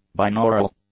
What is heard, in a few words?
"binaural", English; adjectives